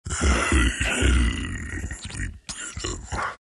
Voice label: anger; dota; earth spirit

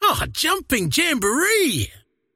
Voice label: croak big; dota; largo

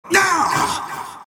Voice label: monkey crown pain; dota; monkey king

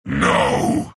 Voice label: troll death; troll warlord

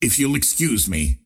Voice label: teleport pain; ringmaster

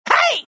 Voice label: pain; dota; meepo

